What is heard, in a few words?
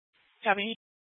Mesa PD Dispatch; Fiesta